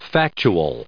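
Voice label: "factual", US English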